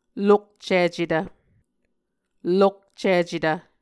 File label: "lokcicetv", Muscogee; Mvskoke Words with Voicing Consonants; Martin, Jack B., and Margaret McKane Mauldin. 2023. A Dictionary of Creek/Muskogee; SIL International